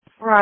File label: Police Patrol 1 (Dispatch)